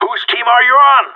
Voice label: friendly killed, radio; GSG9

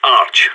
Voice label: arch, radio; SAS

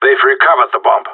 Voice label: enemy picked bomb, radio; GSG9

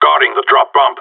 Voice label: guarding loose bomb, radio; GSG9

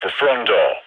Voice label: front door, radio; GIGN

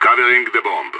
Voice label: guarding loose bomb, radio; SAS

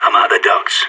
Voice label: ducts, radio; SAS

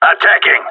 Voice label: in combat, radio; GSG9

